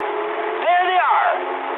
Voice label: eng enemy detected mood high, speech eng; War Thunder